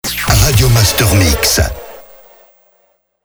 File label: Sweeper Radio Master MIX - MEDIUM; Habillage